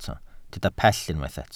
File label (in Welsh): "pell", Welsh